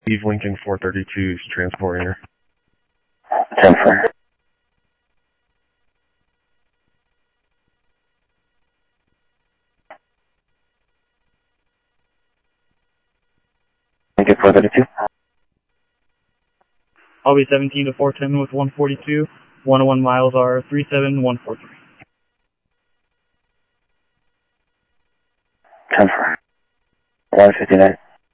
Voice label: Sheriff, scanner audio; SoutheastCenMesa; MCSO - EAST Sheriff-EastCnty

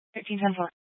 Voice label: Scottsdale Police, Simulcast

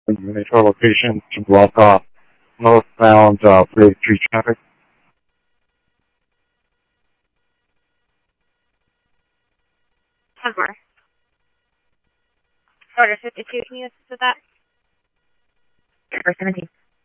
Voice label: Tempe Police, scanner audio

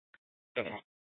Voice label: Sheriffs Office, scanner; Maricopa County; D1 Southeast; Chandler-Mesa Simulcast

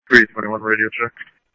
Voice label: Interop Mutual Aid, scanner; G1 Interop MutualAid-InterS; ThompsonPeakHigh

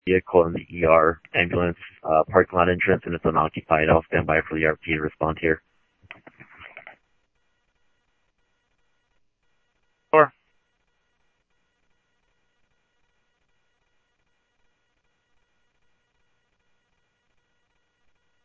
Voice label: PD Police, scanner audio

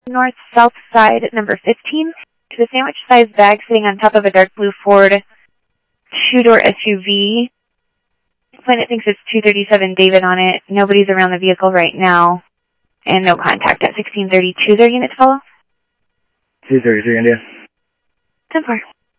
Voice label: Phoenix PD, scanner audio

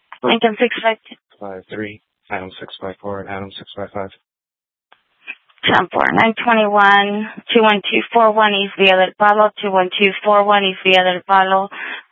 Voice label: Sheriff, scanner

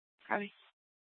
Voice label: Superstition Dispatch, Mesa PD

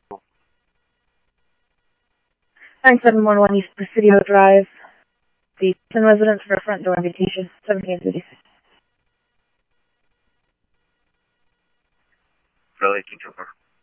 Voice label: Police, scanner; H-Scottsdale; ScottsdalePolice

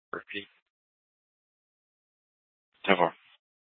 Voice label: Dispatch; Scottsdale

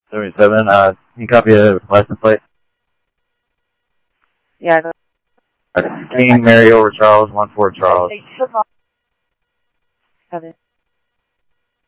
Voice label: Mesa Simulcast, MESA PD, scanner audio

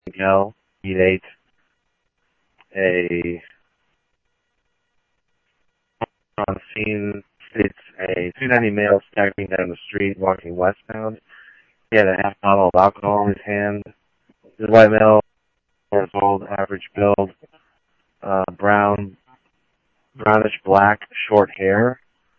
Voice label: Police, scanner audio trunk

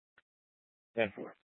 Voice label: Police Dispatch; Phoenix Police; Simulcast C- Chandler

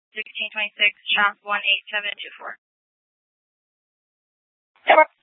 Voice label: PD Dispatch

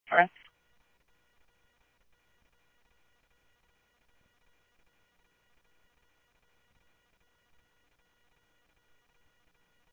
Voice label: Tempe Police, scanner; SimulcastF-Tempe

